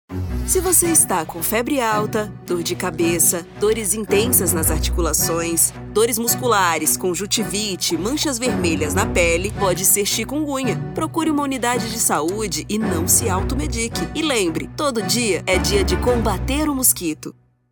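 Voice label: Brazilian Portuguese, female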